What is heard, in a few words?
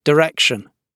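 "direction" spoken, British English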